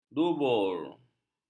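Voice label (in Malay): "dubur", Malay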